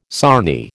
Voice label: "sarnie", American